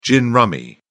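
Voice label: "gin rummy", British English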